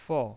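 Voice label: "fou" Mandarin Chinese, fourth tone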